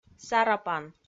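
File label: "сарапан", Komi, female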